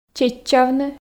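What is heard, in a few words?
"чеччавны", Komi, female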